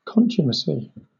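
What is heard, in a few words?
"contumacy", British English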